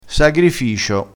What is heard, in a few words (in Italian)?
"sagrificio", vocabolario dialettale